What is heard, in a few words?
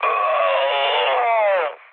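Serbian damaged pilot mood high, speech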